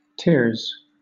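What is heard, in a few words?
"tiers", British English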